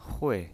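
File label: "hui", Mandarin Chinese, fourth tone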